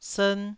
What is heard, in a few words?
"sern1", taiwanese syllable